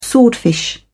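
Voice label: "swordfish", British English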